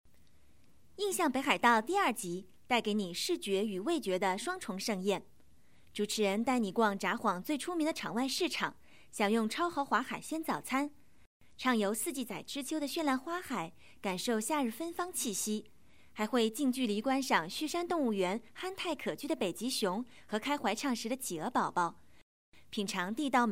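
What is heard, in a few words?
Mandarin female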